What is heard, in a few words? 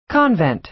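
"convent", American English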